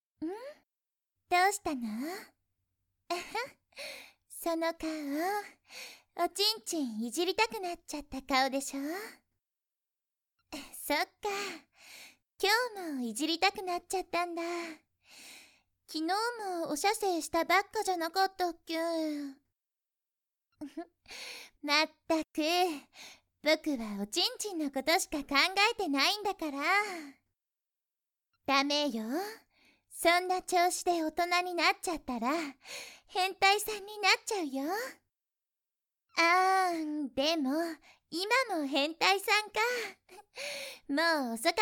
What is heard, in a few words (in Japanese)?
ショタ狩りお姉さんの言わせたがりオナ指示